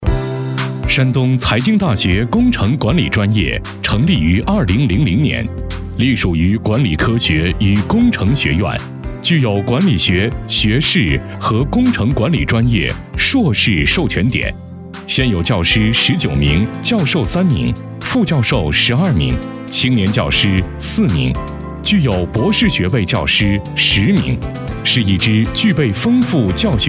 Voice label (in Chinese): 男 专题 稳重介绍 大学专题